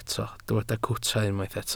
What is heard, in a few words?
"cwta", Welsh